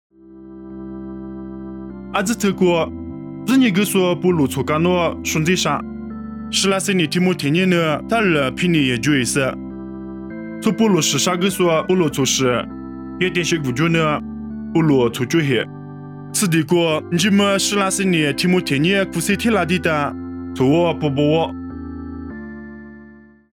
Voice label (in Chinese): 凉山彝语; 地中海雅典; nuosu